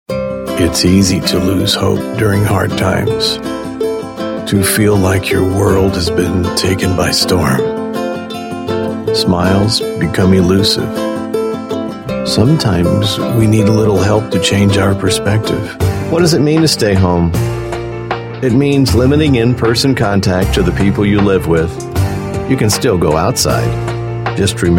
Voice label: english american voice over male

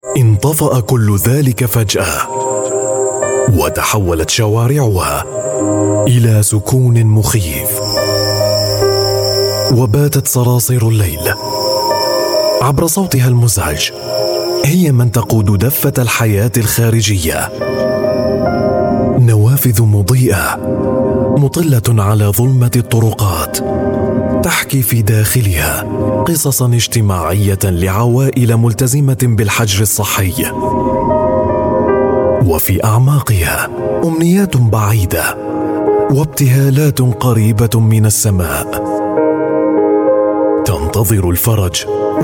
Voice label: arabic voice over male narrator

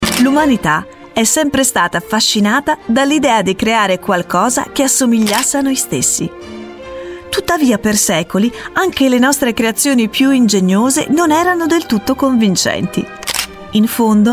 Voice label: italian voice over female documentary

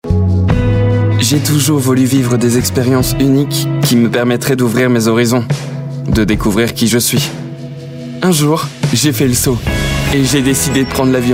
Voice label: french voice over male commercial

